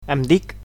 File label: "my name is", Catalan